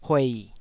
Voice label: "huei3", taiwanese